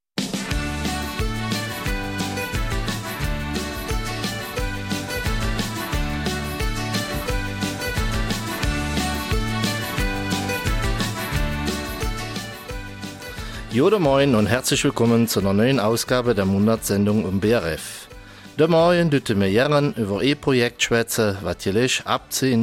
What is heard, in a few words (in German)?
Eifeler Mundart; Musik- und Familientag CAPmusic